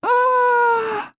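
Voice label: Vox Deny, voices, Japanese; Mediana; kings raid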